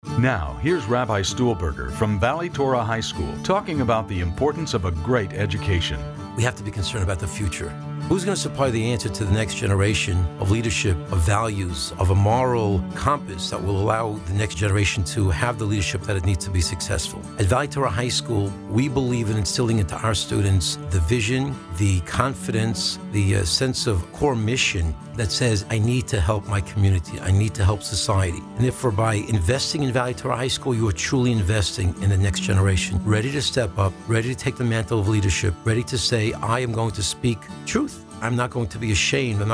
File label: radio ad